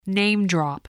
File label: "name-drop", voice